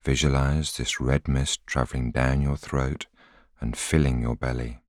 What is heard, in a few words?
voiceover